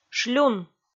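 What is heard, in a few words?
"шлюн", Komi, female; kpv audio dict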